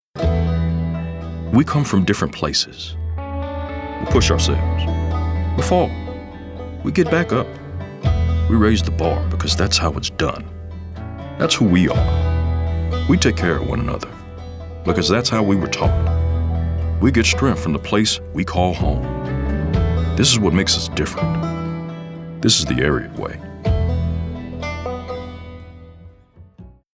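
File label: Urban Cowboy, Male Voice Actor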